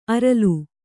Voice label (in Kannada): "ಆರೆಲು", Kannada